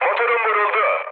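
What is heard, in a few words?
Turkish damaged engine, mood med, speech; War Thunder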